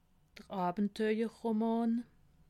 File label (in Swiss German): "abenteurerroman", alsace